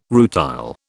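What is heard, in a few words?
"rutile", British English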